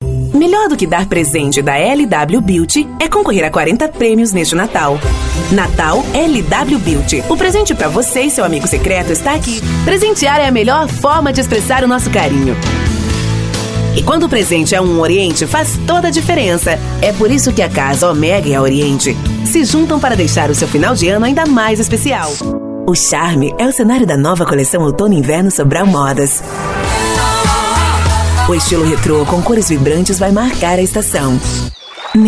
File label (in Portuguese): demo locução Brasil